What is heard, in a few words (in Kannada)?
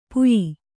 "ಪುಯಿ", Kannada